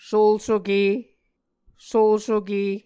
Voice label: "sulsuke", Muscogee

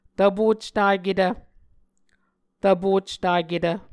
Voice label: "tvpoctaketv", Muscogee

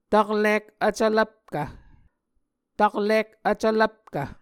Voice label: "taklik vcvlvpkv", Muscogee

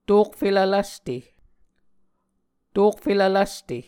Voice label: "tokfelv-lvste", muscogee

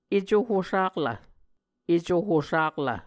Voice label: "eco hosaklv", muscogee

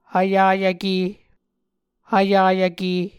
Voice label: "hvyayvke", Muscogee

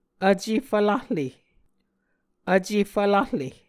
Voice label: "vce fvlahle", Muscogee

